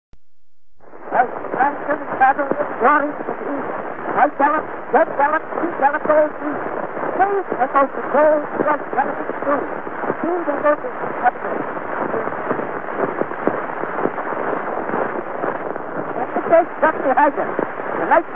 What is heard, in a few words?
Robert Browning Recites His Poem in 1889 on the Edison Cylinder